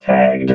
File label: "tagged", robot